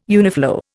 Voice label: "uniflow", Indian

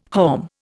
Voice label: "haulm", Indian

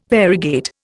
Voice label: "variegate", Indian

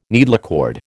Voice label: "needlecord", American

